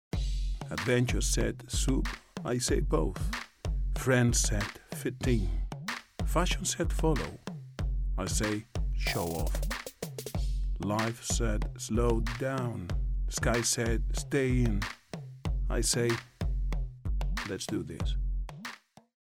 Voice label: male non native english car comercial; ideasonora